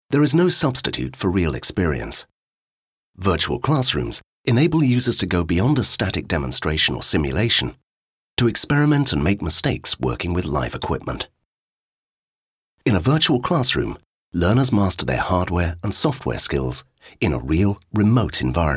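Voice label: British e-learning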